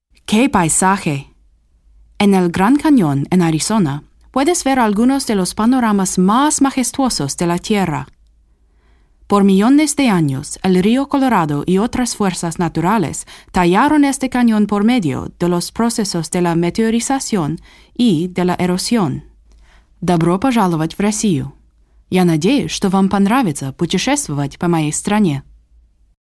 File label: languages demo